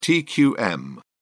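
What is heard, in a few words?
"tqm", British English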